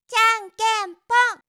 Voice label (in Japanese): "じゃんけん、ぽん", 遊ぶ女の子